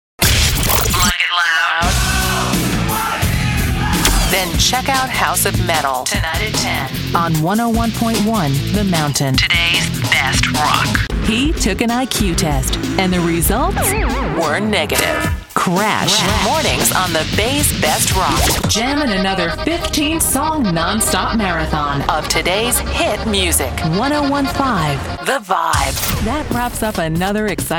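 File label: voice over overall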